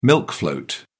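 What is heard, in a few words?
"milk float", British English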